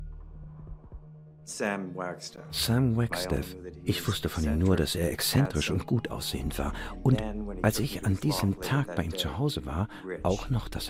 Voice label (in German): ARTE Doku